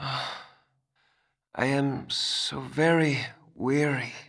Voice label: Male; baldurs1